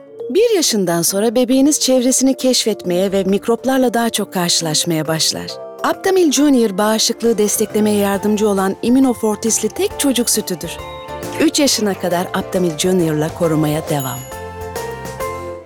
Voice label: neseli tempolu; seslendirme

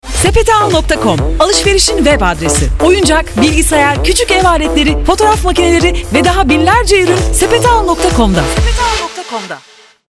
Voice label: enerjik; kampanya

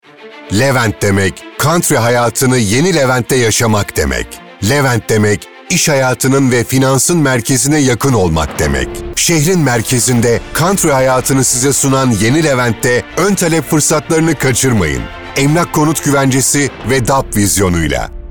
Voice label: otoriter, seslendirme

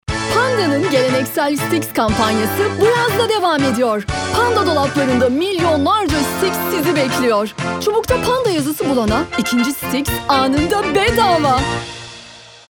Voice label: enerjik tempolu

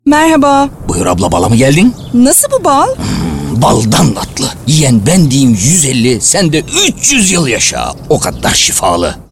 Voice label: animatik karakter; seslendirme

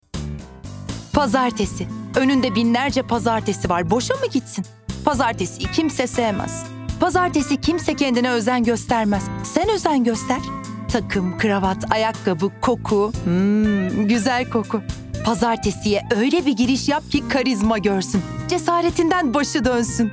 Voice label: enerjik esprili

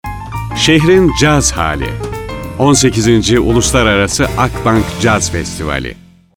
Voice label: guclu otoriter

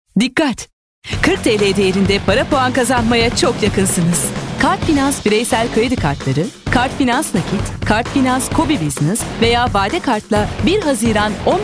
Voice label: kampanya tempolu

